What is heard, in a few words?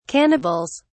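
"cannibals", British English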